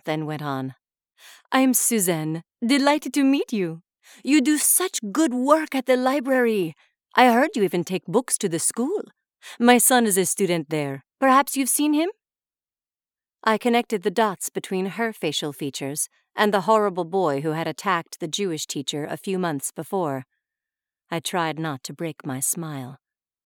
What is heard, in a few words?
1st person Fiction french accent